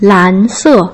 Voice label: "lan2 se4", Putonghua

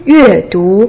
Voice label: "yue4 du2", Putonghua

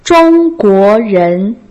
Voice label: "zhong guo ren", Putonghua; Uni1Lesson2

